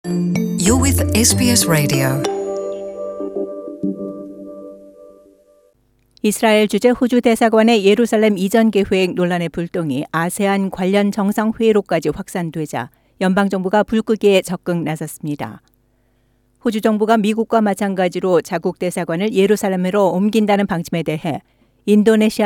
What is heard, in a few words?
korean; SBS Korean; ASEAN으로 튄 이슬라엘 주재 호주 대사관 이전 계획 논란 불똥